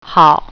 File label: "good", Mandarin; haov.